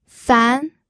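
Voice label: "fan", pinyin, second tone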